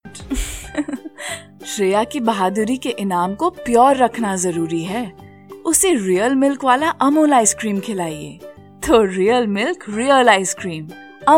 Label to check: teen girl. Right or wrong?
Wrong